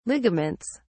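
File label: "ligaments", English, British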